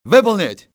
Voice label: execute, russian soldier; XBOX